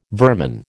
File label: "vermin", American